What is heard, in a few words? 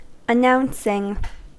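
"announcing", American English; pronunciations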